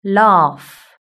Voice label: "laugh", british english